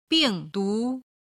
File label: "bingdu", chinese